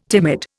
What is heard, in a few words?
"timid", Indian